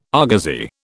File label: "argosy", British